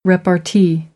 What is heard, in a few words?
"repartee", American English